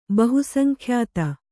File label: "ಬಹುಸಂಖ್ಯಾತ", Kannada